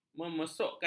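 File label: "memesukkan", Malay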